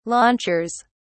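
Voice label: "launchers", British English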